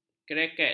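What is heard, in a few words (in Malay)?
"kereket", Malay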